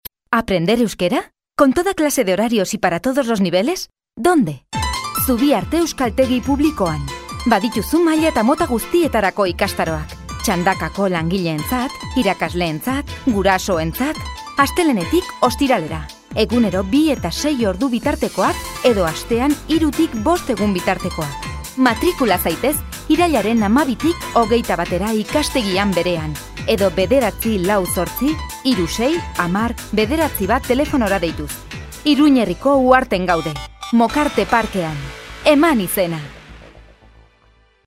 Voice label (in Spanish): Femenino